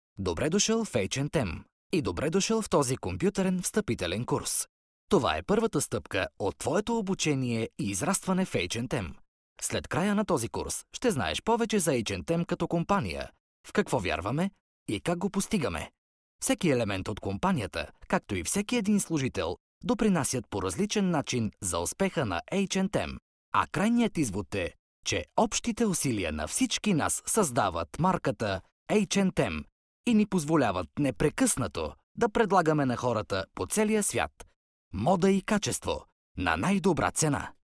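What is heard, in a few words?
bulgarian male e-learning, voice demos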